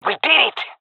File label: cheer, fem; player vo; Counter Strike Model Pack; CS:GO